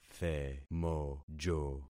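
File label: "themojo", concatenated syllables; Affixing experiment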